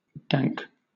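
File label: "dank", British English; pronunciations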